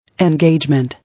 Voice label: "Engagement", English